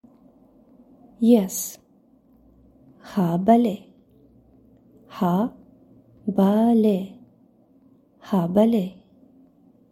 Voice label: Yes Tajik